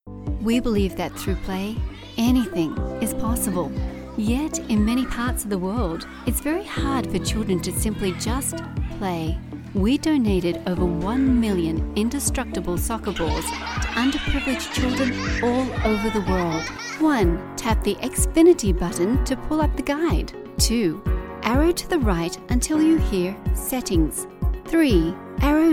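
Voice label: English Australian, female, corporate, accent of australia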